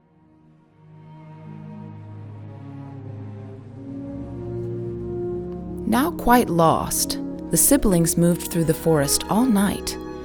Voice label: Second Narration; Set Change with Second Narration; Soundtrack